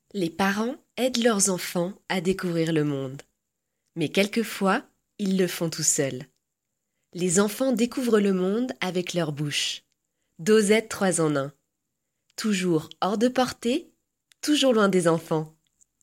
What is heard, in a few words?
French